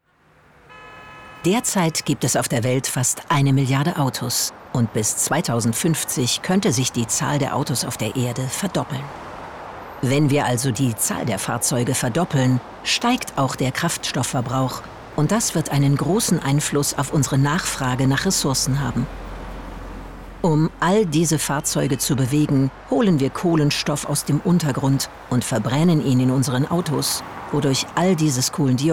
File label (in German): Voice Over Zukunft, Doku, German